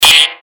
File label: evil pain; CAC ASSETS